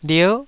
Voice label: "lier9", Taiwanese syllable

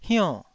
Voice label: "hionn4", taiwanese syllable

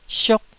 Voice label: "siuk1", taiwanese

